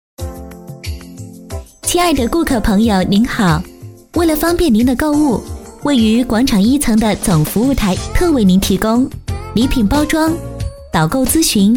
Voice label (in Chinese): 女, 广播, 广场服务介绍; 乐盈广场